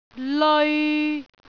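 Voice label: "leoi3", Cantonese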